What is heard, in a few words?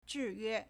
"zhi1yue4", Chinese